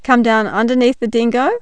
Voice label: "dingo", intonation